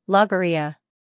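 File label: "scandal", American; logorrhea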